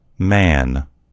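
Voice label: "man", US English; gcompris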